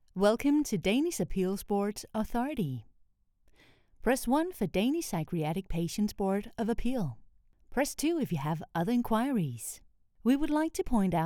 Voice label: IVR English; Danish Appeals Board Authority